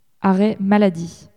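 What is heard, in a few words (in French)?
"arrêt maladie", French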